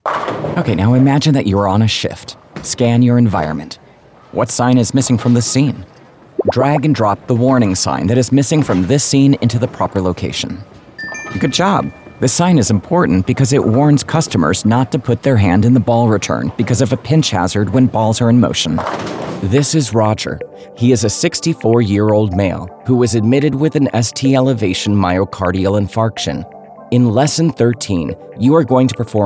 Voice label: eLearning Narration